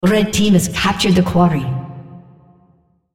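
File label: female, en US; Odin; Quarry red Captured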